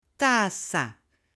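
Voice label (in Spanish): "taza", Spanish, female; UTENSILIOS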